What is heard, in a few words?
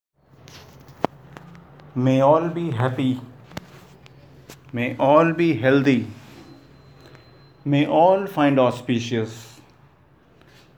mantra universal wellness all 4 lines english